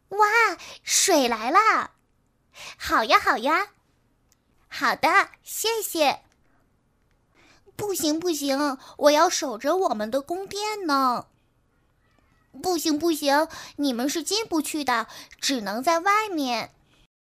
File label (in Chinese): 女童声【女孩5-6岁】